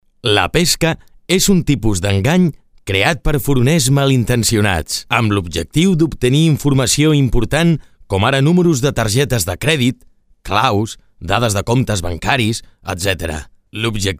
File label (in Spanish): locutor catalan voiceover